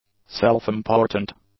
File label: "self-important", English; adjectives